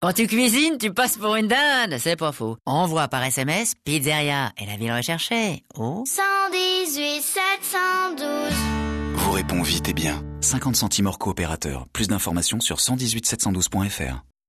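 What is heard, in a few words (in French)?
spot, spots radio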